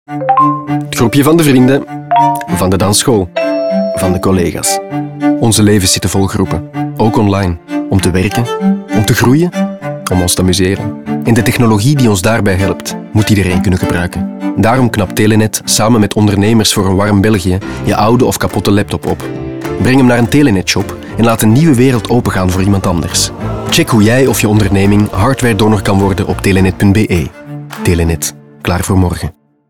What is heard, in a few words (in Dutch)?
radio nl; Telenet